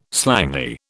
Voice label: "slangy", British